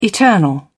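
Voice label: "eternal", British English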